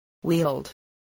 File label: "wield", voice, english